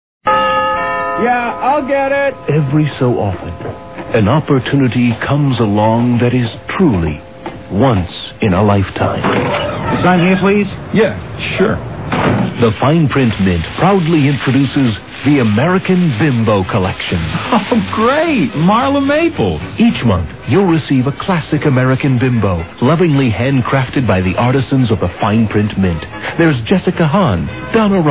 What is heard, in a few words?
Commercials; Freekin Weird Audio